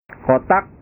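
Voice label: "kotak", Indonesian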